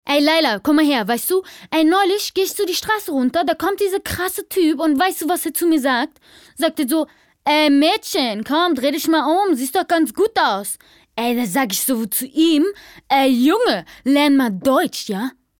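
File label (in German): türkisch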